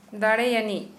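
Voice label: Santali female powerful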